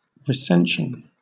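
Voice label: "recension", British; pronunciations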